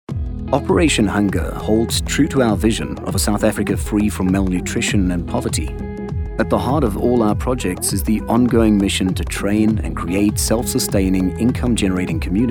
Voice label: Corporate Narration